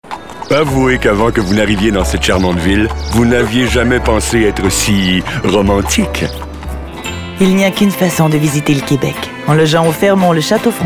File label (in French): voix femmes; fairmont hotel